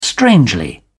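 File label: "strangely", British English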